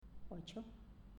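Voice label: "Ocho", Spanish, female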